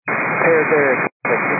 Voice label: 122.925 MHz; scanner radio